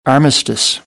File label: "armistice", American English; ə - ɪ